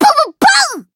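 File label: Voice attack Japanese; white nopou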